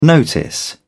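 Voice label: "notice", British English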